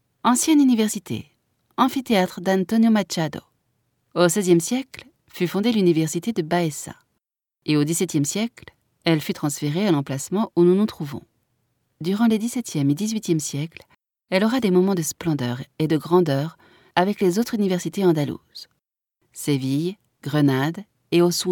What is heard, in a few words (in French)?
audioguia, frances; audioguia baeza